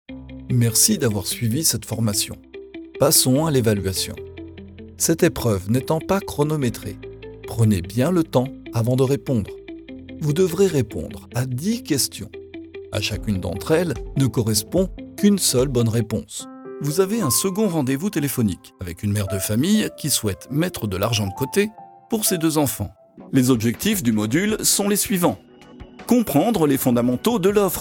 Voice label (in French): E LEARNING